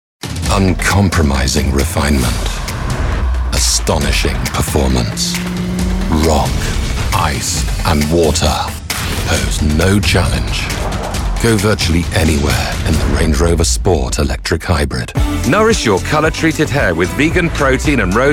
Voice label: english british commercial